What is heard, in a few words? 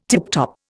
"tiptop", Indian